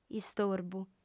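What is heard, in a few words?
"istorbu", Sardinian, female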